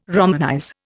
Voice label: "romanize", Indian